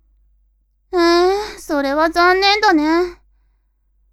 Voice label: woman